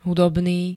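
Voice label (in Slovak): "hudobný", slovenské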